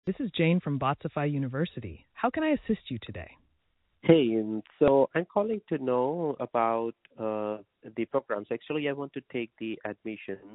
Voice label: voice ai